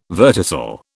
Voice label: "verticil", British